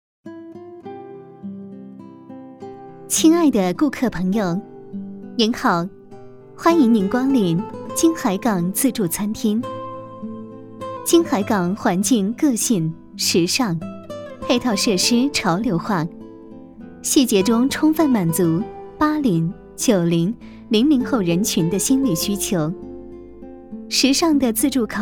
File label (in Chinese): 专业女声10, 企业广告【大气沉稳】; 中文